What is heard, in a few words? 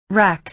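"rack", American English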